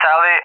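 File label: "tally", English speech; Messages; DCS; Allied Flight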